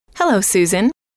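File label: hello, female; trainer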